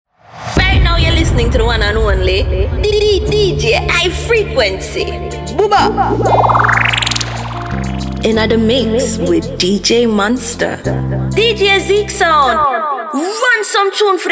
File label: Jamaican female DJ drop; dj drops by various voices